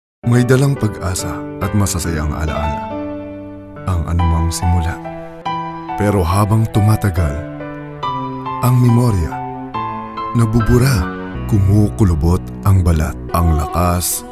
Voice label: Documentary for Old People VO